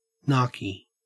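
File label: "narky", Australian English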